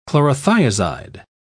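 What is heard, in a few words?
"chlorothiazide", British English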